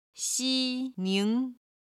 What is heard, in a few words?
"xi1ning2", chinese